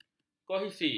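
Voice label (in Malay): "kohesi", Malay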